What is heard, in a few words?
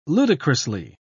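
"ludicrously", British English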